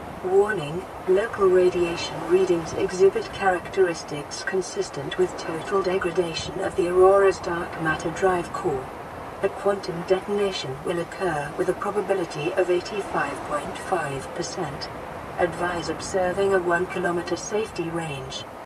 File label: Updated AI Speech